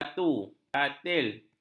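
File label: "batu", Malay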